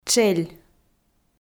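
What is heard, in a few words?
"тшель", Komi, female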